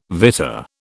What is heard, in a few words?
"vitta", British